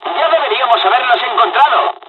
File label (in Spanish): dialog, dialogs spanish; us midway; War Thunder